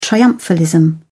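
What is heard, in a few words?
"triumphalism", British English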